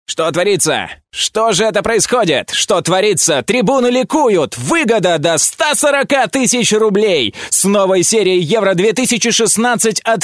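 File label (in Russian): Sportivnyj kommentator, sports commentator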